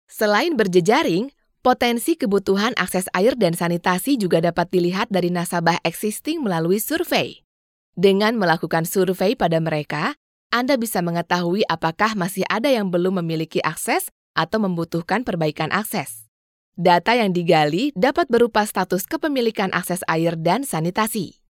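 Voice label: Indonesian, female, narration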